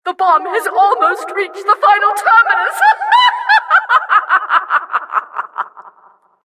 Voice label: announcer cart attacker final warning